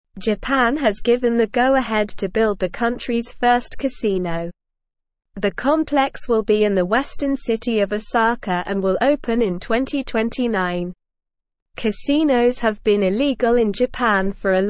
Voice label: English Newsroom Beginner SLOW Reading; Japan Building First Casino to Attract Tourists; Japan has given the go-ahead to build the country’s first casino. The complex will be in t